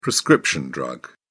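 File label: "prescription drug", British English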